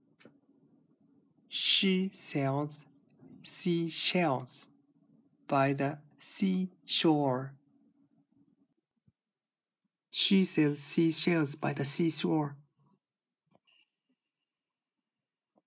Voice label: she sells, pronunciation